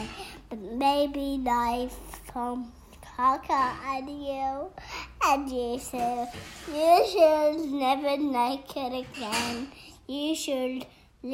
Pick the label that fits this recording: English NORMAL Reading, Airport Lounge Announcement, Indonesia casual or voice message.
voice message